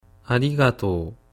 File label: "arigatou", Japanese